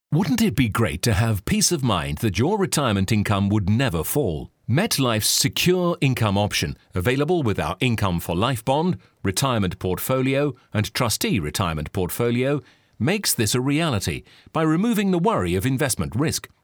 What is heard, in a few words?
ENGLISH (UK) Male